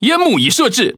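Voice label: Chinese (Taiwan) Speech Consumable Smoke Stop; World Of Warships; ZH TW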